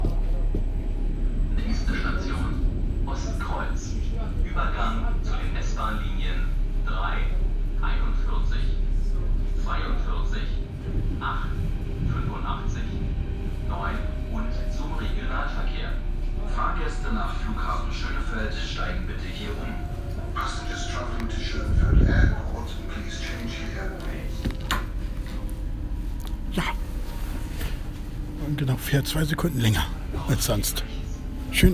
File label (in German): bahnhof berlin ostkreuz ansage der sbahn berlin; Fahrplanwechsel 13 Dezember 2015